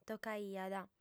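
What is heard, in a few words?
"tocaiat", Sardinian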